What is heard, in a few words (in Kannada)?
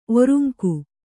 "ಒರುಂಕು", Kannada